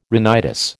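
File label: "rhinitis", American English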